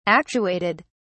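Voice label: "actuated", English, British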